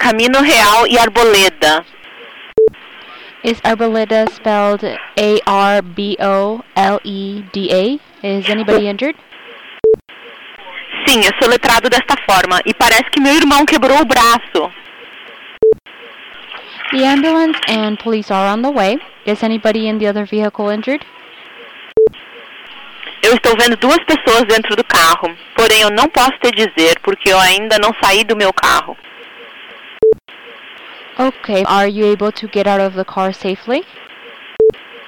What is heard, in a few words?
911 Reporting an Accident Brazilian Portuguese without interpretation